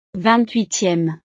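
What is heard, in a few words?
"ving huitieme", French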